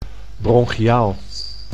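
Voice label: "bronchiaal", Dutch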